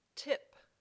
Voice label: "tip", English

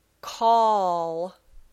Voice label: "call", English

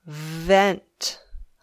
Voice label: "vent", English

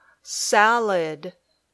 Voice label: "salad", English

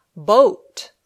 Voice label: "boat", English

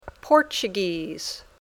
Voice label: Portuguese

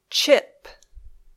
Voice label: "chip", English

